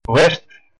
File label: how are you, west flemish